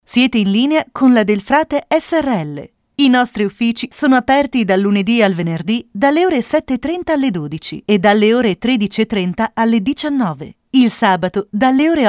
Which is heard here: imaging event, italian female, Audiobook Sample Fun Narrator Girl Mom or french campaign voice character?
italian female